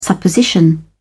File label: "supposition", en uk